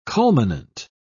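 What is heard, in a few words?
"culminant", British English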